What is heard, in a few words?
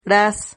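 "ras", Spanish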